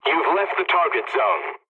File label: player in zone leave, english; War Thunder